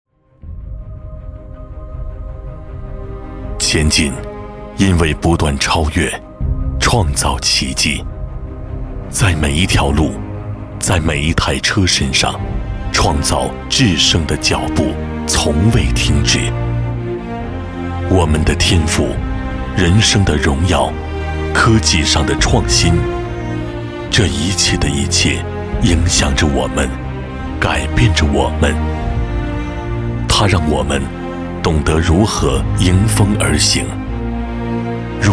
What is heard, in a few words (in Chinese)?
广告 汽车, 国语男声; 交广主播